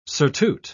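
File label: "surtout", British English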